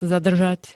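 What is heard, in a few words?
"zadržať", Slovak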